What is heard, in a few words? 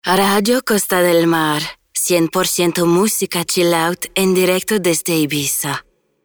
spanish commercial